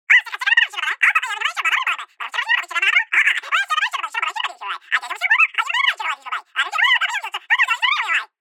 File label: cartoon babbling on telephone; sonniss gdc